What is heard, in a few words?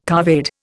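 "couvade", Indian English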